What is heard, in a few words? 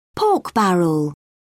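"pork barrel", British English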